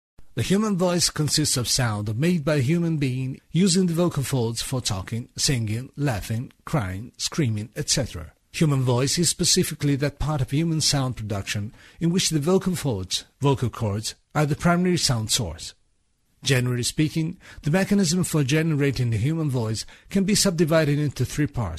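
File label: Italian English, male, tutorial, accent of italy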